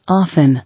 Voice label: "Often", English